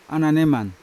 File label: "ananeman" feather, Yawa